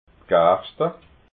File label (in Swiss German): "garschta", alsace